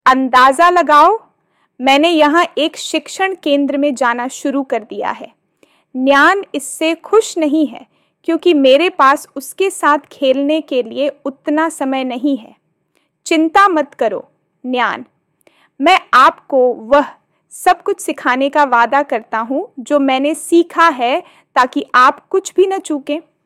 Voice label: Hindi Audio